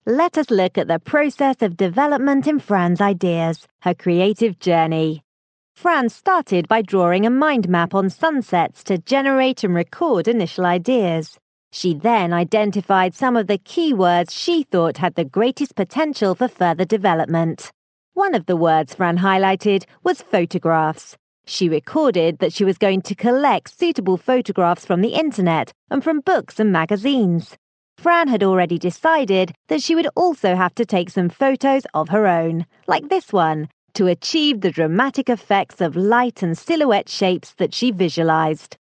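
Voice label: British English, female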